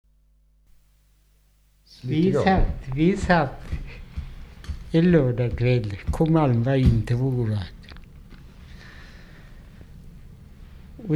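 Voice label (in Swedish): Mölndal Västergötland, dialekter; Svenska sagor; Folkminnen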